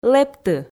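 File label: "лэпты", Komi, female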